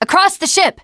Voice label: generic, teengirl, voice

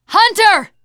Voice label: warn hunter, teen girl; player; survivor; voice

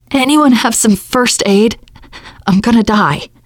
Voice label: going to die ask for heal, teen girl; player; survivor; voice

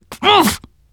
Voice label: reaction negative special, teen girl; player; survivor; voice

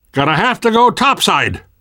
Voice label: world hospital, namvet, survivor voice

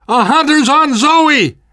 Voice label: hunter pounced, survivor voice namvet; Left 4 Dead 2; left4dead2 dlc3

